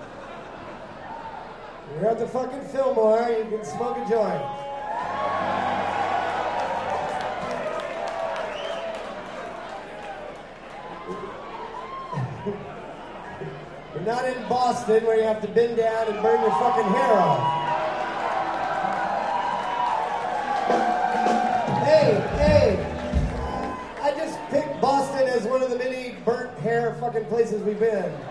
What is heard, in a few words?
Public Service Announcement